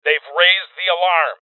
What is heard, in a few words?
Voices Alarm State Male; Rebel Cops